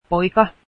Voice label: boy, Finnish